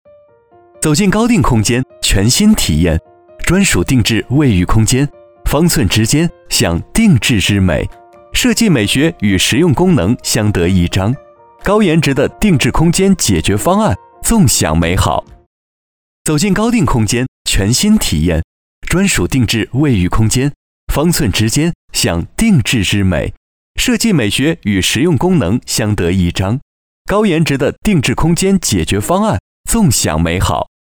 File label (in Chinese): 男 轻快广告 卫浴